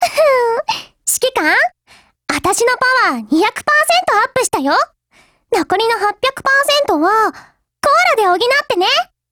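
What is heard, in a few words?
GAIN, Japanese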